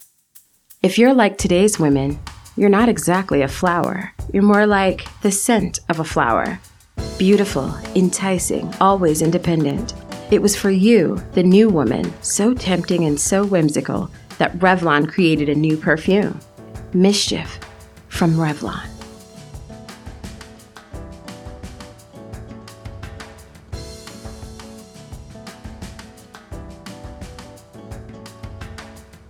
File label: Commercial; Revlon